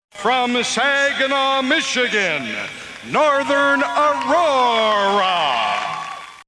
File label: announce